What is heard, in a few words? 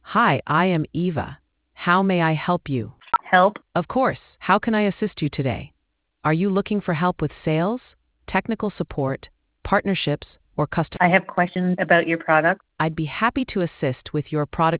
conversation